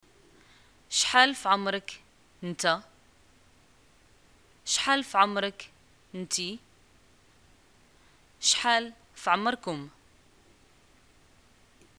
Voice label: how old r u, arabic, ma

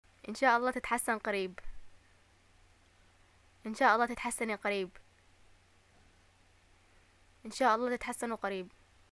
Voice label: greeting get well soon, arabic

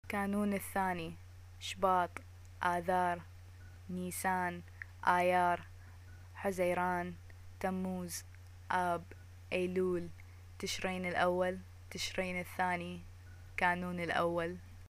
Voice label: months, arabic, iq

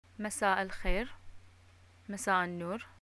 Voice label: greeting evening, arabic

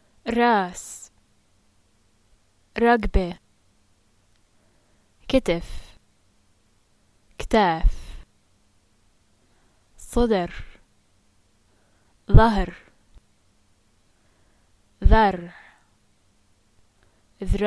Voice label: body parts, arabic levantine

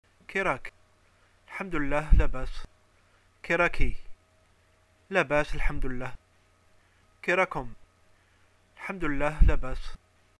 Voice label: greeting how r u, arabic

